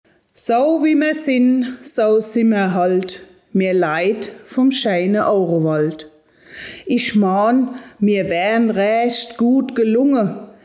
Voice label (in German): Mundart Hochzeitsgedicht